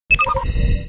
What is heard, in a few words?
computer command; startrek